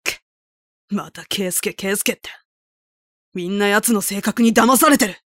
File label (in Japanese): 静かな情熱を持った青年; 友和; 「くっ・・・また圭介、圭介って･･･皆、ヤツの性格に騙されてる！